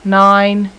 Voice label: 5 female; numbers